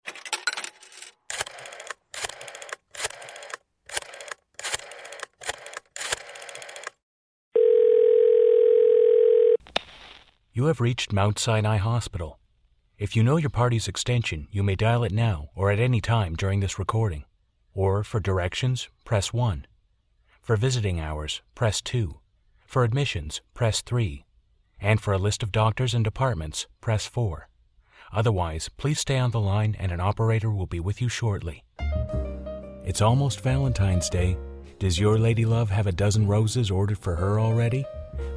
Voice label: VO telephony